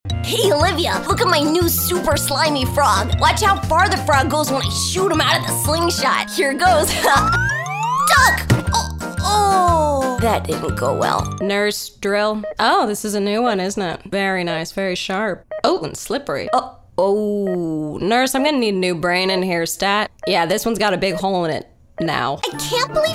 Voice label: Animation